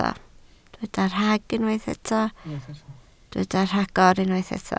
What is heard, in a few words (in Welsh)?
"rhagor", Welsh